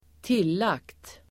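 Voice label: "tillagt", Swedish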